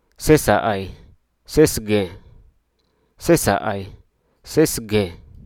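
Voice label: Hruso Aka; during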